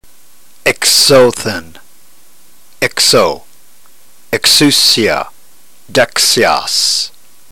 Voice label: drill, ntgreek